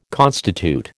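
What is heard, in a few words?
"constitute", American English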